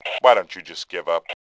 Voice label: when, Male; voice; Tribes2